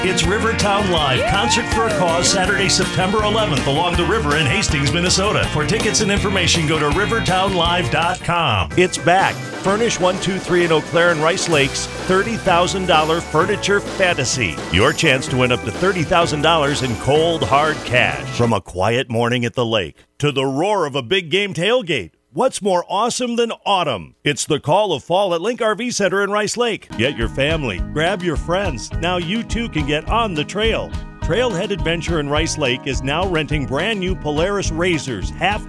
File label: commercial